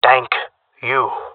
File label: thankful, jungle male; player vo; Counter Strike Model Pack; CS:GO